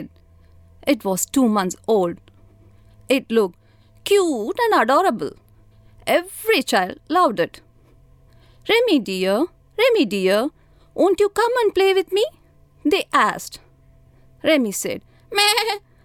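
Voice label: story english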